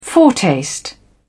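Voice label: "foretaste", British English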